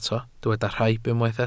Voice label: "rhaib", welsh

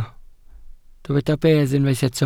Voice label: "bedd", Welsh

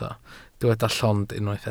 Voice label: "llond", Welsh

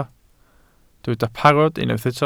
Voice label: "parod", Welsh

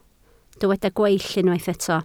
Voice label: "gweill", Welsh

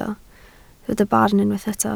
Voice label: "barn", Welsh

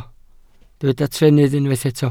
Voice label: "trennydd", Welsh

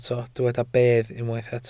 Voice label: "bedd", Welsh

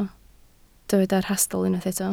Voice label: "rhastl", Welsh

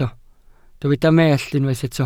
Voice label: "mellt", welsh

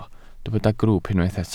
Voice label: "grwwp", Welsh

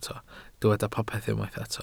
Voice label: "popeth", Welsh

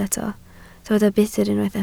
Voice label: "budr", Welsh

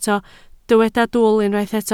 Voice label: "dwl", Welsh

